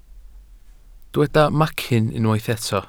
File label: "macyn", Welsh